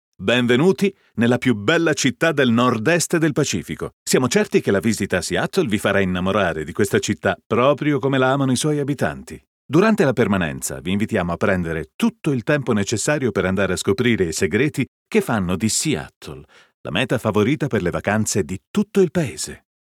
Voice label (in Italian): ITA audioguida